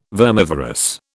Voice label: "vermivorous", British